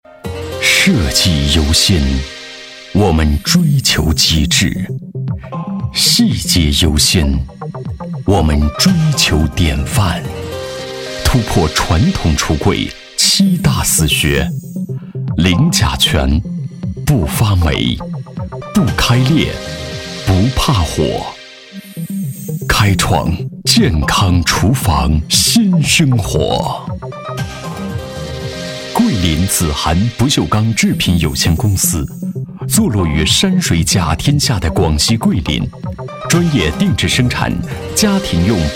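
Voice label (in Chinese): 男国大音-高端品味; 广告配音; 品牌紫韩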